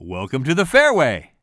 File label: commentator